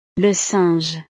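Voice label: "le singe", French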